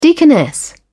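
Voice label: "deaconess", en, British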